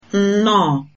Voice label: welcome, igbo

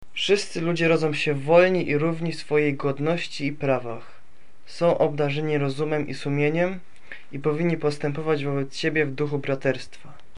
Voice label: udhr pl

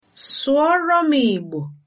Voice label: speak to me in, igbo

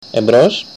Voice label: "hello", Greek; Εμπρός; hello on the telephone

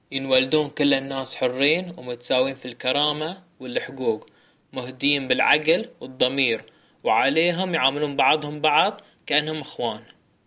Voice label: Bahrani

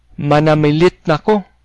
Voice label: goodbye, cebuano

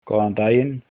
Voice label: hello, icelandic; Góðan daginn

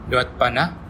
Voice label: write, bonggi

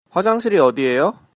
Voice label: toilet, Korean

